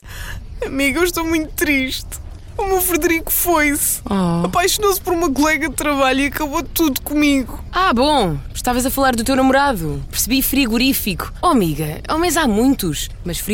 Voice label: SPOT